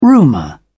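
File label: "rumour", English, British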